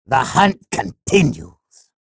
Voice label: chatter neutral; sorlag